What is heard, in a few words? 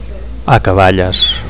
"acaballes", Catalan; (fpl) end; (fpl) final moments